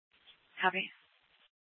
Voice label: Mesa PD Central Dispatch, Scanner